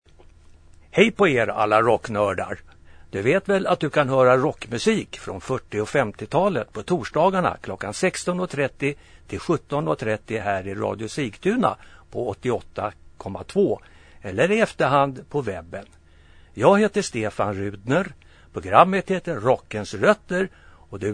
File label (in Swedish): Jingel; Rockens rötter; KORT